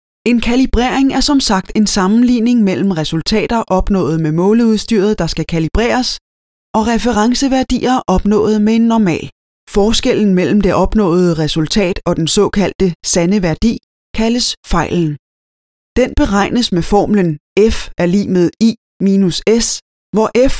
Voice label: elearning